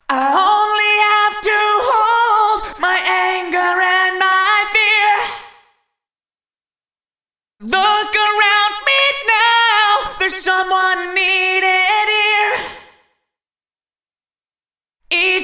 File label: Eventide H910 Vox; Back To The Fifties